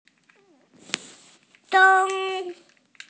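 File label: "dong1", Mandarin Chinese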